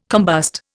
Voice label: "combust", Indian